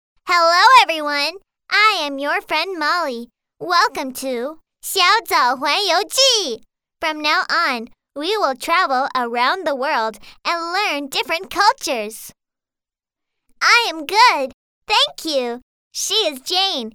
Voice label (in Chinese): 外籍女英 外语 美式英语 万圣节